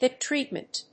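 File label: "HIP-treatment", English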